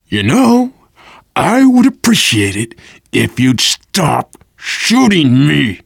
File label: friendly fire, voice, biker